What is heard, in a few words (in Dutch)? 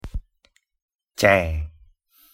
"ᠴᠠᠢ", Mongools; thee-in-andere-talen